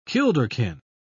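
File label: "kilderkin", British English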